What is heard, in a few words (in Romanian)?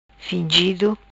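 "Fingido", Macanese Patuá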